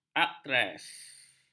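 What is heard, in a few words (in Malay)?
"aktres", Malay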